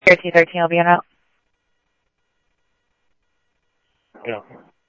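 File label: Simulcast police department, scanner audio trunk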